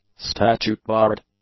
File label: "statute-barred", English; adjectives